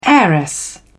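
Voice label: "heiress", British English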